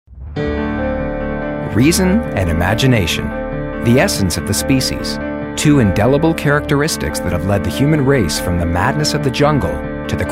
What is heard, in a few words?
narration; TaDa